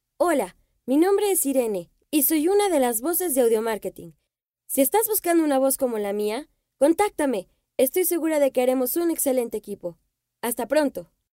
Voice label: locutora nina; infantiles

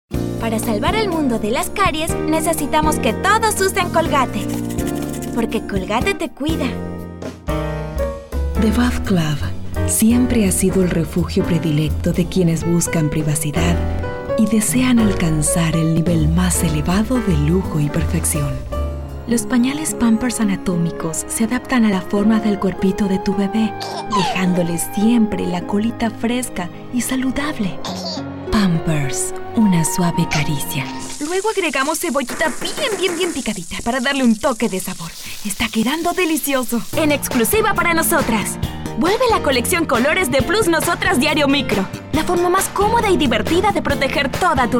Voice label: Locutora Argentina

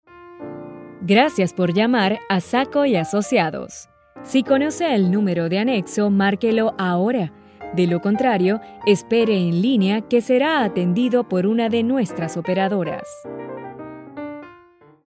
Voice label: Locutora Venezuela

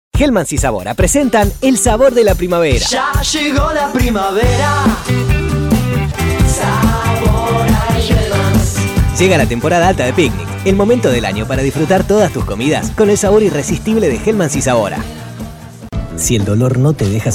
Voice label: Locutor Argentino; argentina

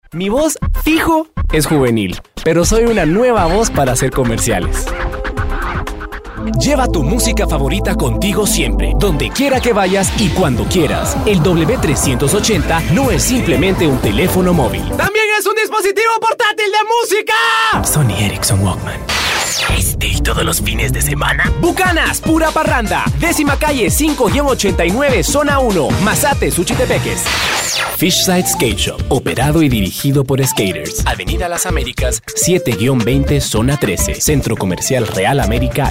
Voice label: locutor guatemala

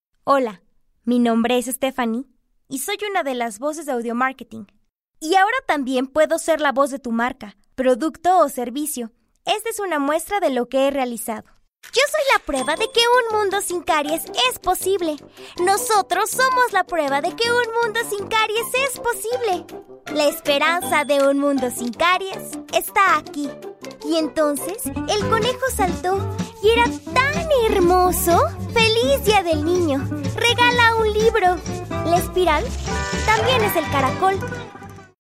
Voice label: Audiomarketing Mexico, Locutores

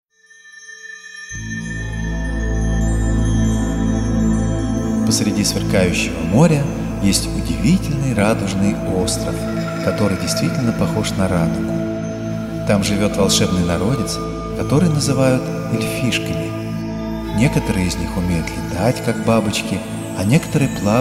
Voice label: locutor ruso; rusia

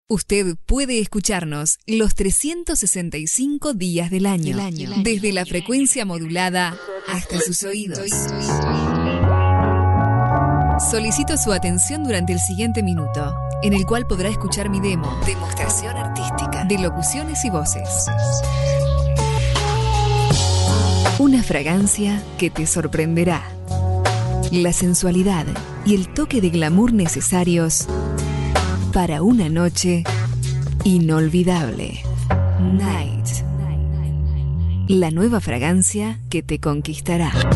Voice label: Locutora uruguaya

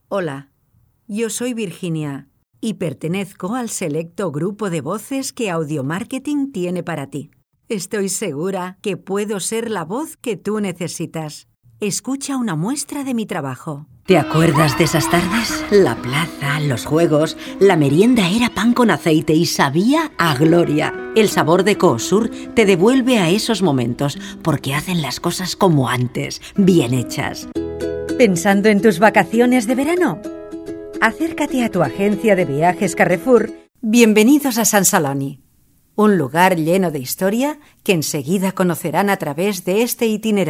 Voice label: Locutora espanola

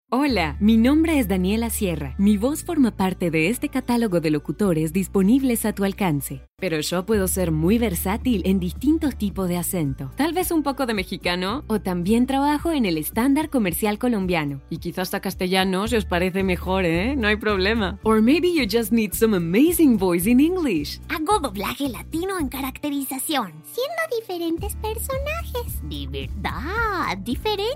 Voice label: Locutora colombiana

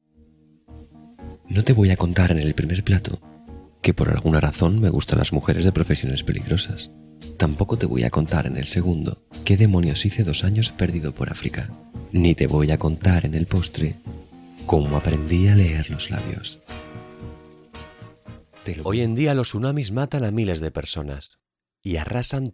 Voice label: Locutor espanol

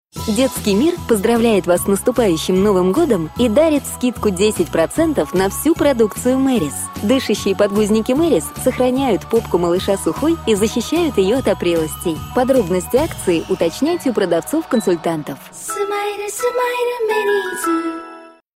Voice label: locutora rusa; rusia

